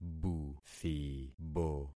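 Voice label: "buthibo", concatenated syllables; Affixing experiment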